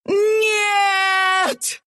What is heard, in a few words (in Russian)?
lose ru; dota2